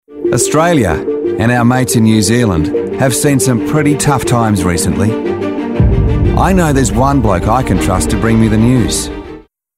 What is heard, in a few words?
voiceover News Promo